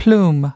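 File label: "plume", American English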